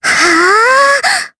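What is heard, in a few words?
Vox Casting, Japanese; Jane; kings raid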